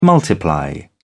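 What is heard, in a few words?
"multiply", English, British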